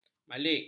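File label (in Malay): "malik", Malay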